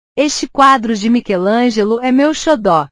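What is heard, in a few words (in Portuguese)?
"xodó", Portuguese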